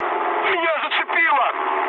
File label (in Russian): damaged pilot, mood med, speech ru; War Thunder